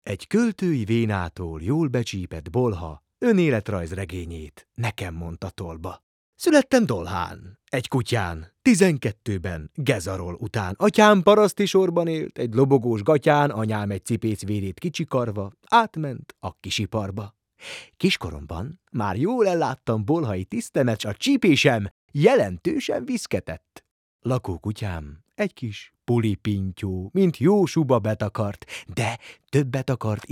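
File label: hungarian male narration; voice demos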